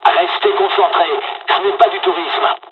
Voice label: dialog, dialogs french; War Thunder